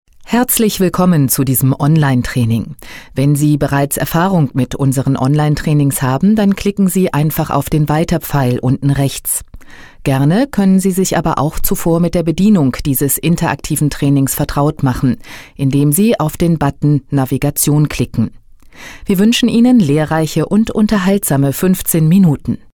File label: eLearning